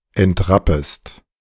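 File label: German "entrappest"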